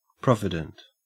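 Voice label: "provident", Australian English; pronunciations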